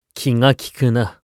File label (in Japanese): 助けてもらう2, クール系ボイス; 戦闘ボイス